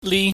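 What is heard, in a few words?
"li", Cantonese, high falling tone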